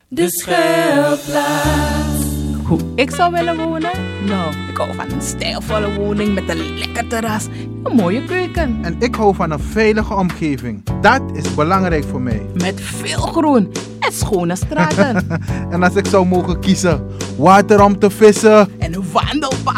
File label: radio spot